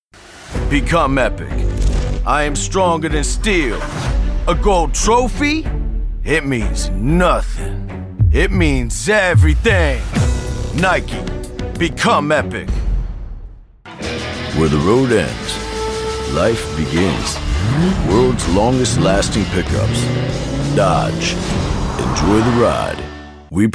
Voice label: Commercial